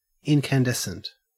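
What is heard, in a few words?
"incandescent", Australian English; pronunciations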